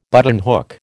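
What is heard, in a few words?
"buttonhook", American English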